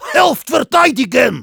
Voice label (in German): help me defend, de; Helft verteidigen!